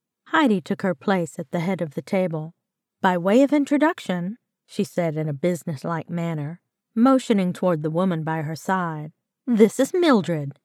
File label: Southern Cozy Mystery Narrative Female Dialogue